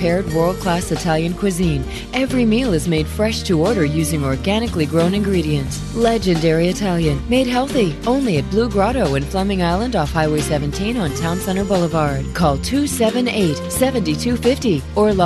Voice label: RADIO Restaurant Grand Opening; Blu Grotto